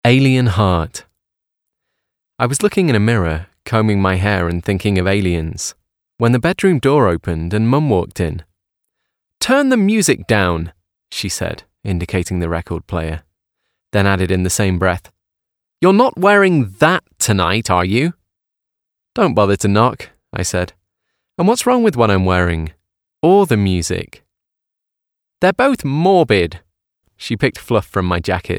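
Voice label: fiction narration, Male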